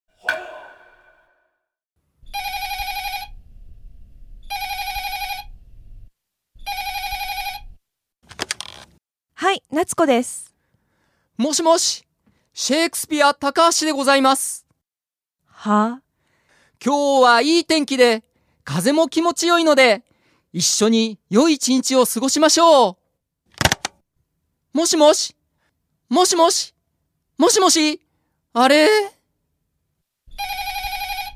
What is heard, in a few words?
dialog; JapanesePod101; Beginner Season 1